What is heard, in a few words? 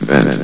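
"vent", male; vox; source engine; hl1; video games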